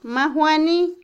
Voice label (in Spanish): seguro, Otomí, mujer; INALI